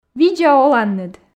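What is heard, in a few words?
видза оланныд, Komi, female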